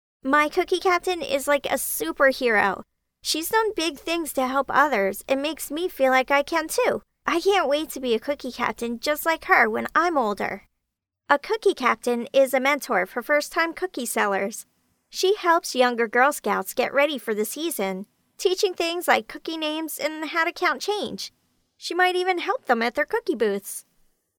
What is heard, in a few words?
Cookie Girl Dry Track